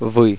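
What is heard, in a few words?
"you plural", russian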